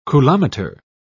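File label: "coulometer", British English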